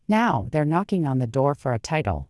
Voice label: improved conversational female